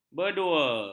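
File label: "berdua", Malay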